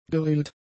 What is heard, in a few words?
"gerillt", German; adjectives